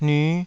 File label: "nyu5", taiwanese